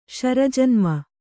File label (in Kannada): "ಶರಜನ್ಮ", Kannada